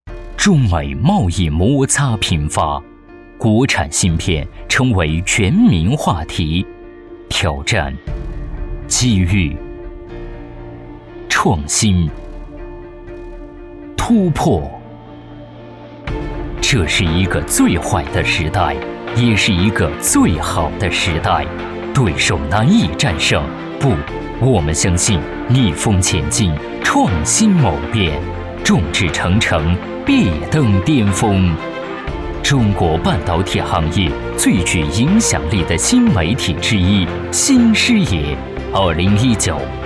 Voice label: 沉稳 大气 宣传片; 2019硬核中国芯

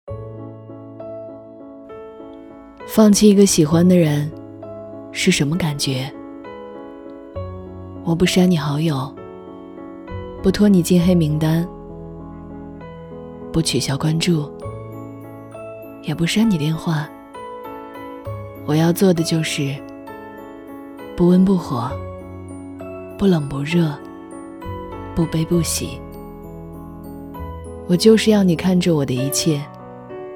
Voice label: 情感电台 悲伤 倾诉 旁白

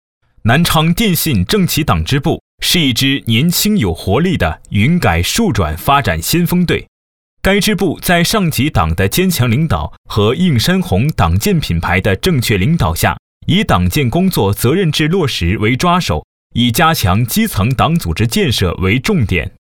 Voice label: 新闻

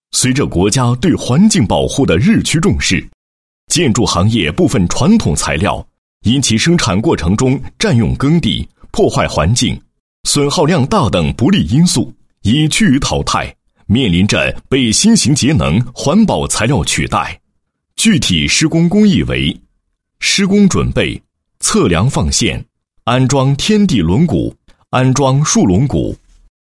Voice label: 工程 解说

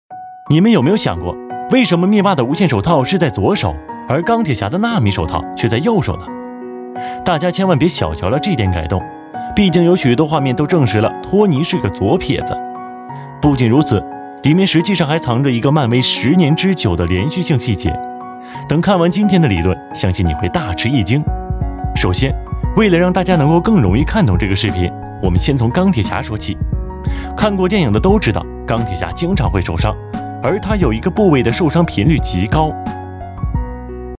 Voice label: 电影解说

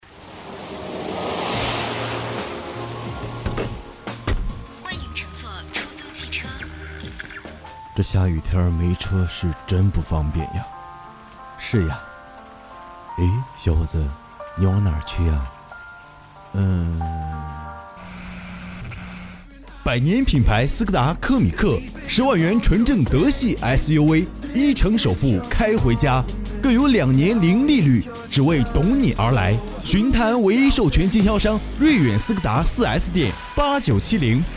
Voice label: 广播商业广告; 泰安市广播电视台广播商业广告斯柯达

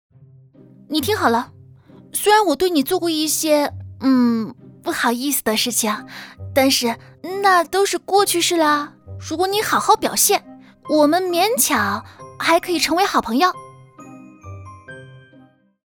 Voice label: 活泼美少御角色温暖配音

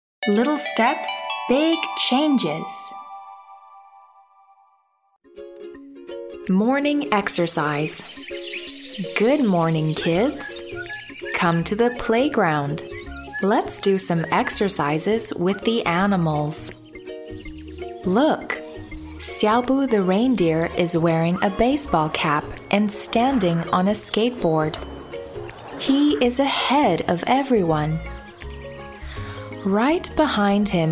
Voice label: 美式 女 英文绘本 晨练

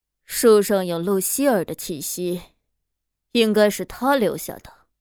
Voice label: 御姐女王

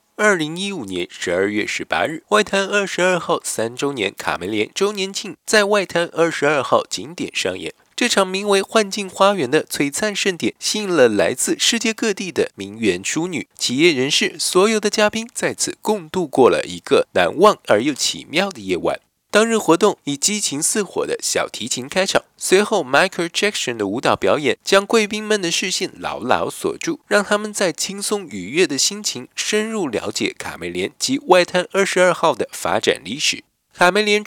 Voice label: 台湾口音播报

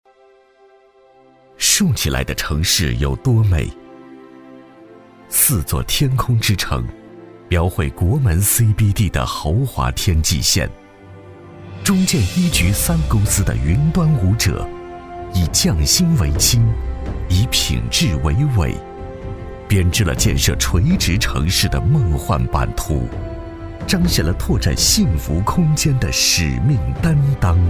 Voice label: 专题 企业 大气; 中建

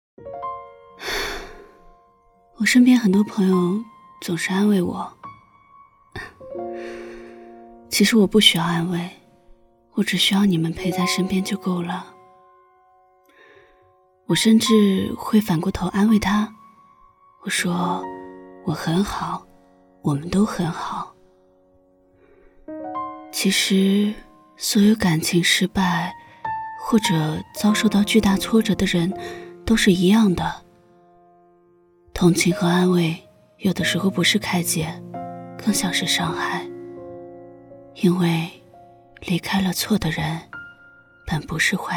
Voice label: 抖音情感素人

